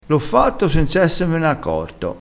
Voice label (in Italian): "essessene", vocabolario dialettale